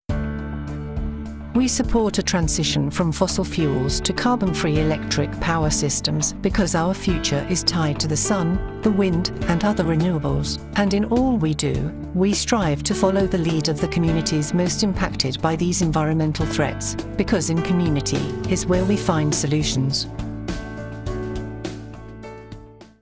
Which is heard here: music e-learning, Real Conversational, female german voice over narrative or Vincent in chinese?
music e-learning